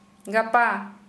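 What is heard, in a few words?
Santali, female, tomorrow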